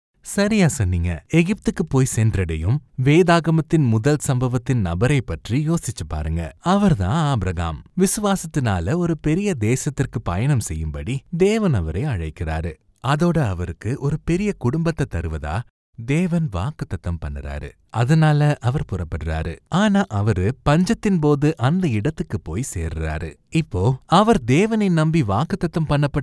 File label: Tamil India M